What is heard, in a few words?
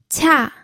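"qia", Mandarin Chinese, fourth tone; pinyin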